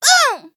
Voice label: hit, en; cookie run